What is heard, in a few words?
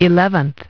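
"eleventh", English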